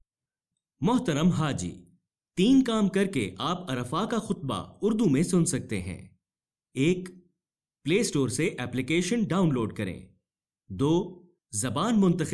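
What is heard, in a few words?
Urdu, male, corporate; voice-over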